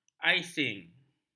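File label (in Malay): "aising", Malay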